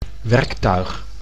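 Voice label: "werktuig", Dutch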